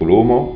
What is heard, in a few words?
"coloma", Occitan